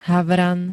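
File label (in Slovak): "havran", Slovak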